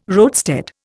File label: "roadstead", Indian